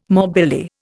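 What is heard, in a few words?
"morbilli", Indian English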